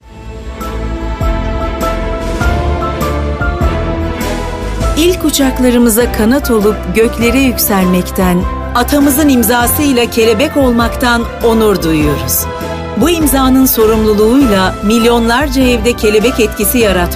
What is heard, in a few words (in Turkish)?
kadin sesler, turkce seslendirme